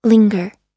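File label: "linger", American English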